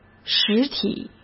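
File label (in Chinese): "实体", Mandarin Chinese; hsk; chinese-flashcards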